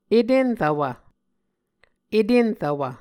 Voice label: "etenrvwv", muscogee